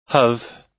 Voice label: "have", us